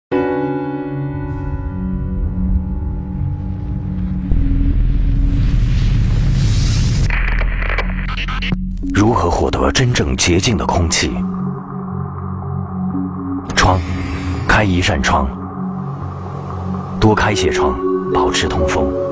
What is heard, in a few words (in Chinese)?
男 广告 国语男声配音